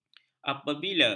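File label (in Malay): "apabila", Malay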